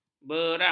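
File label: "berang", Malay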